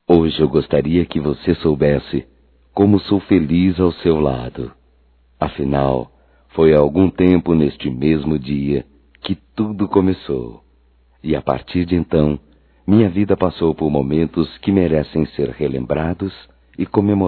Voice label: ANV NAMORO CASAMENTO(M); Casamentos

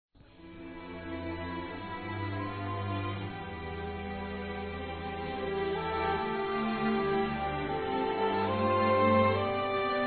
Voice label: MÃE F, Telemensagens, Aniversários, Fem; Aniv Familiar

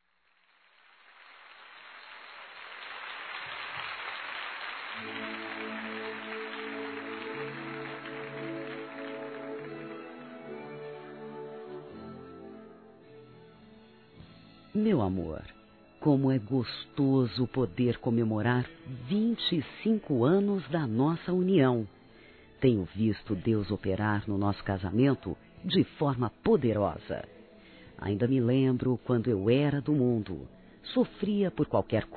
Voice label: Bodas de Prata Evangélico Feminino; Aniversários

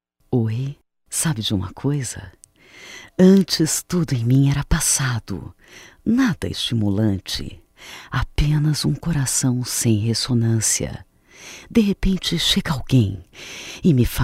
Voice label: Sedução Feminino; Telemensagens 2017; MOMENTOS DE AMOR

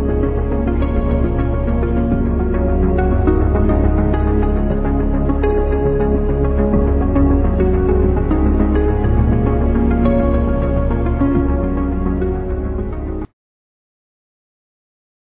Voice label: Dia Das Mães Para Madrinha Feminino; Telemensagens 2017

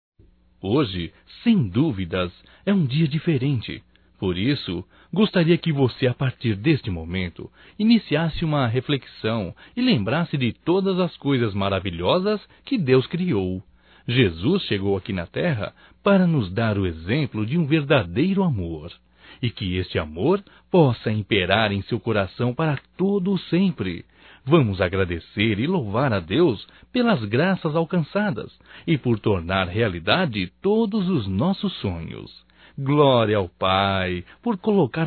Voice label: Aniversário Irmão Evangélico Masculino; Aniversarios; Telemensagens 2017

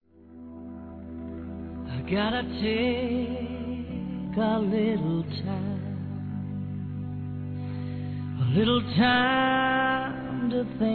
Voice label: Aniversário Romântico Feminino; Telemensagens; Românticas

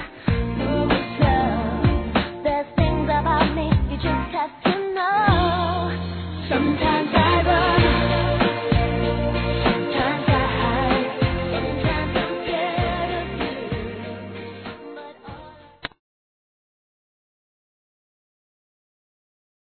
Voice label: Feliz Aniversário Masculino; Aniversários; Aniversários diversos

